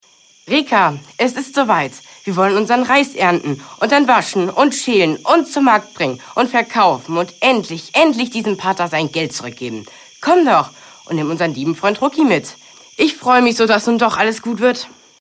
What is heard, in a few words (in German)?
Hoerspiel